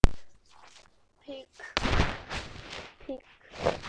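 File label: "pick", spoken word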